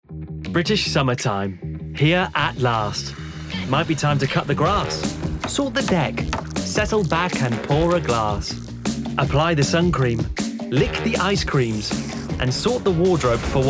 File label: Commercial